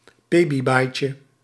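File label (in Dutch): "pebibyteje", Dutch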